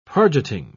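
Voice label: "pargeting", British English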